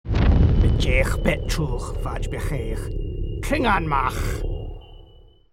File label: klingon language